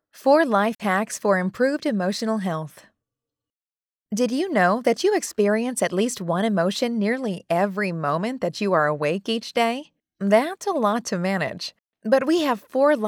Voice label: Voiceover; Life Hacks Vid